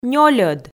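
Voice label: "нёльӧд", Komi, female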